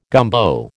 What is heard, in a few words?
"gumbo", American